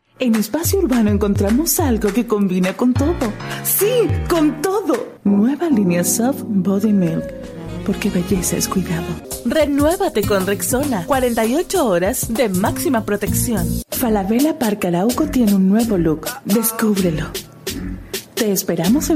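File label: Spanish Latin America female sales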